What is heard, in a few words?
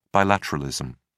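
"bilateralism", British English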